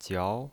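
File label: "jiao", second tone, pinyin voice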